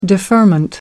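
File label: "deferment", British English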